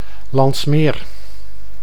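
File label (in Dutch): "Landsmeer", Dutch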